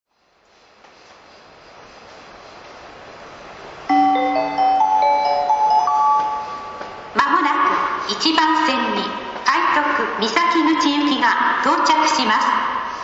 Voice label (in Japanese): 快特 三崎口行き接近放送 新橋駅; 東武３００００系 / ５００５０系の登場により、半蔵門線直通運用から離脱した編成での収録。この区間での自動放送が新鮮に感じられます。; 駅・車内放送